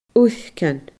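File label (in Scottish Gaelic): "uircean", Scottish Gaelic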